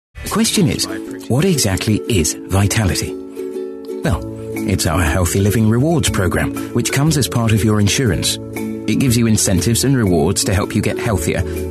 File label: Commercial